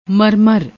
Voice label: "marmar", Punjabi; ਮਰਮਰ